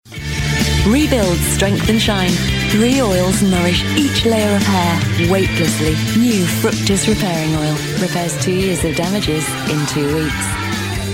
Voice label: TV Radio commercials